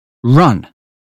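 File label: "run", British phonetics; 英语音标发音（剑桥版）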